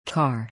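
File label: "Car", English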